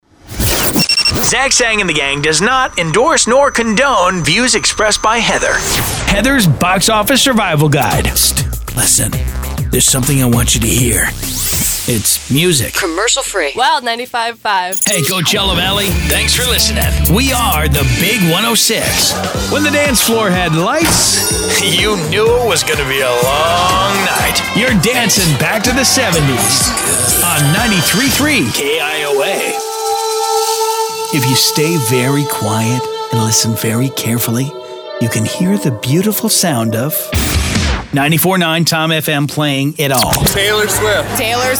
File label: Voiceover, men